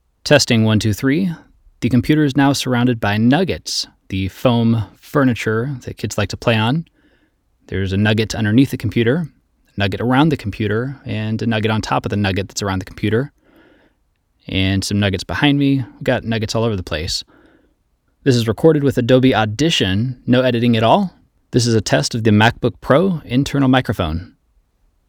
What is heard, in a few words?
MBP 2019 Internal Mic WITH NUGGET